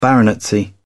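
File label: "baronetcy", British English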